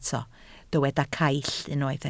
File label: "caill", Welsh